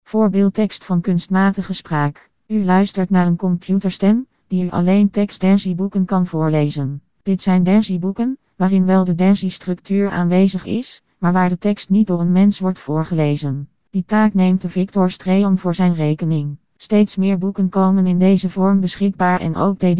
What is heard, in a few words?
Dutch Female